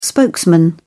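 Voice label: "spokesman", British English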